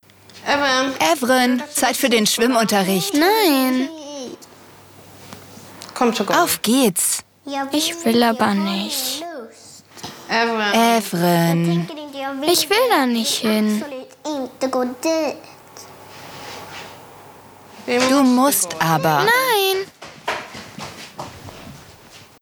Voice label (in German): voice over streng